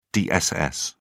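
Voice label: "dss", British English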